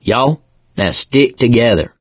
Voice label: stay together, mechanic

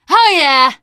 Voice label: taunt, teengirl; survivor voice

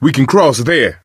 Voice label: misc directional, coach, survivor voice

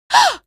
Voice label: shock, female; video games; npc; source engine; episodic; episode 1

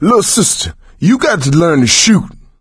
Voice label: friendly fire female, player survivor voice; source engine; left 4 dead 2

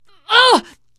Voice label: horde attack, teen girl, survivor voice; source engine; left 4 dead 2

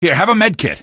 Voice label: health, male; Source Engine; video game; npc

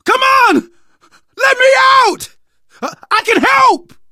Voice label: call for rescue, survivor voice

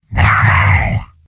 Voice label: soldier kaboom; video games; source engine; tf; mvm

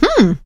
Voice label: positive noise; source engine; left 4 dead 2; survivor; producer

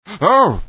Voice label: fear; Source Engine; bshift; rosenberg

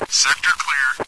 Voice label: clear, radio; cstrike; Source engine

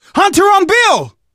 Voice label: hunter pounced, survivor voice; left4dead; manager; bill; Source engine

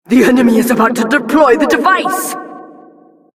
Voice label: announcer rocket warnings

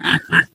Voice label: impatient; Portal 2; Source Engine; video games; ballbot

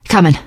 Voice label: im with you, teengirl; source engine; left 4 dead 2; survivor

